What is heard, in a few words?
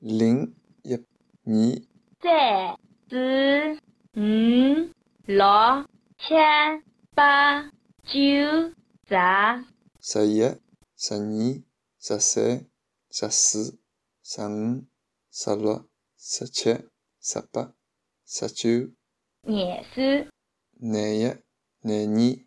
numbers, shanghainese